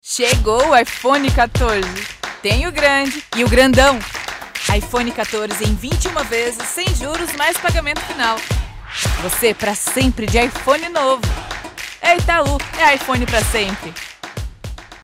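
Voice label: commercial ad teen enthusiastic female brazilian portuguese voiceover; itau; iphone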